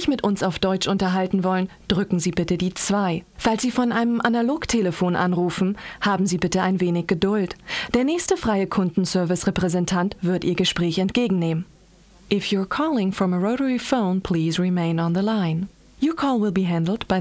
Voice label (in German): callcenter dt engl